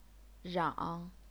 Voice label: "rang3", chinese pinyin

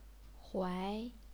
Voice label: "huai2", chinese pinyin

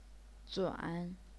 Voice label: "zuan3", chinese pinyin

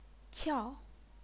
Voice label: "qiao4", chinese pinyin

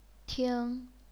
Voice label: "ting1", chinese pinyin

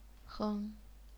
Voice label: "hng0", chinese pinyin

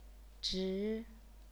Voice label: "zhi2", chinese pinyin

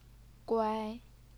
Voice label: "guai1", chinese pinyin

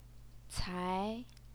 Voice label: "cai2", Chinese pinyin

